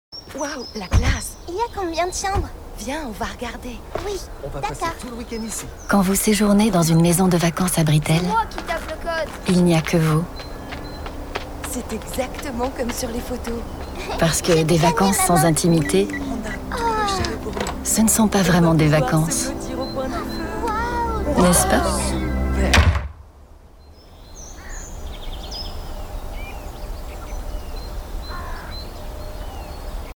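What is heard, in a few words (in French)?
Pub TV Web role MOM, Voix Off Femme; ABRITEL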